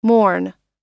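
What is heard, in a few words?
"mourn", American English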